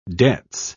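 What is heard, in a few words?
"debts", English, British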